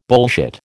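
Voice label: "bullshit", American English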